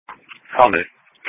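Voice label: Police Patrol (Dispatch)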